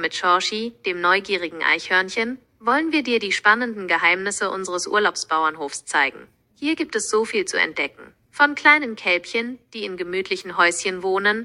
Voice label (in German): Willkommen langsam